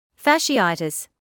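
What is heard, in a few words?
"fasciitis", British English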